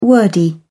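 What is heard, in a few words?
"wordy", British English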